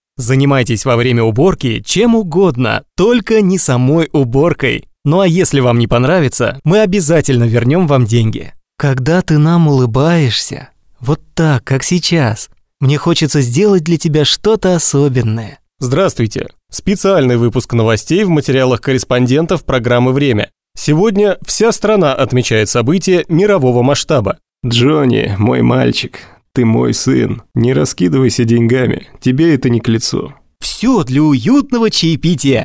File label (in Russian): Речь, dictor